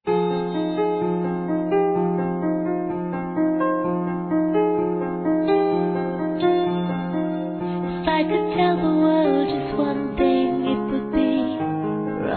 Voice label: Ex Namorado Feminino; Aniversários; Aniversários diversos

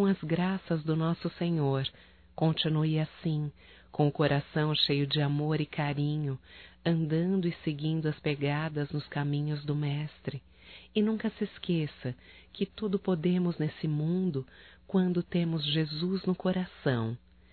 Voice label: EVAN FILHA EVANG (F); Telemensagens 2017

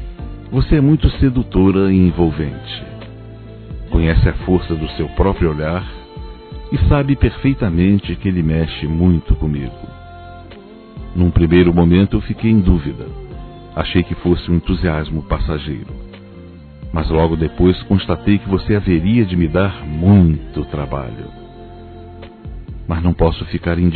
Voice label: Conquista Romantica Suave Masculino; Telemensagens; Conquistas Cantadas paqueras; Telemensagens 2017

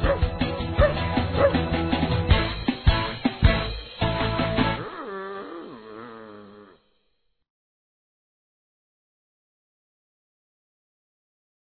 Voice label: Ano Novo PARA Criança Masculino; Telemensagens; Natal e Ano novo

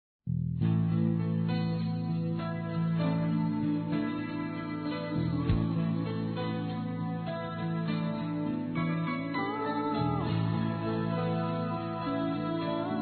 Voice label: Casamento Amiga Feminino; Casamentos; Telemensagens

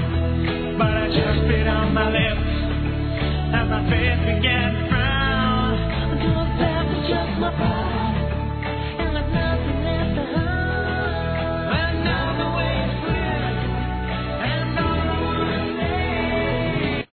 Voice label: ANIV. PADRINHO F; Aniversários; Aniversários diversos; fem

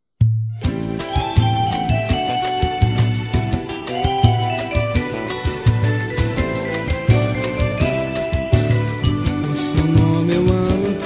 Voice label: ROMANT. SUAVE, Telemensagens

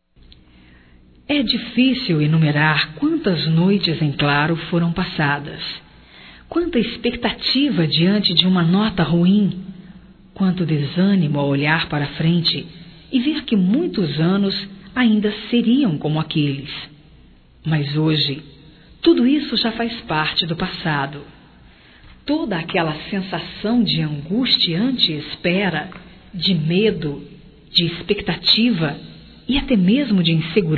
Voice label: Formatura Feminino; Telemensagens 2017

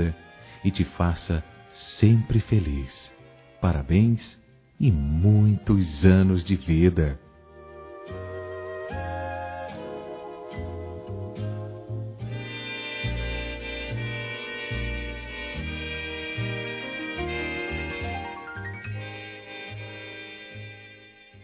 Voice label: Aniversário Avó Masculino; Aniversários; Aniv Familiar

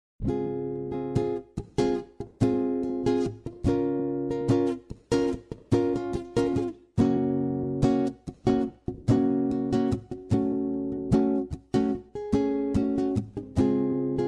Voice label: Amizade Neutra Feminino

